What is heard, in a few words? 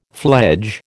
"fledge", American